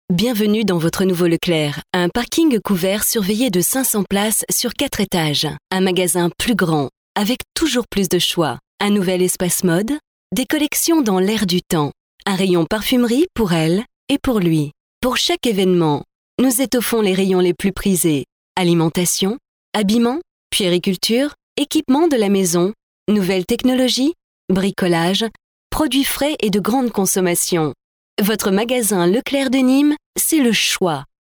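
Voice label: French, France, female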